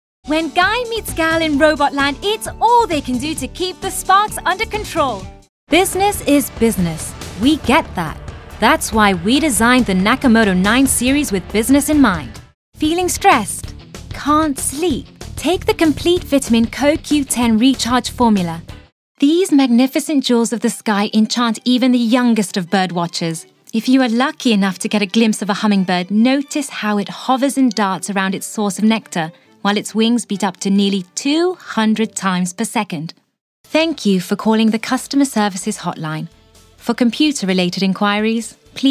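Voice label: British English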